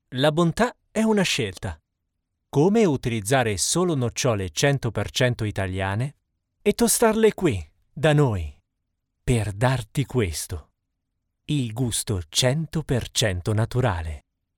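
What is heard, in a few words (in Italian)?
comercial Italia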